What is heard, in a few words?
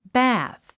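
"bath", English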